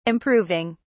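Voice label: "improving", British English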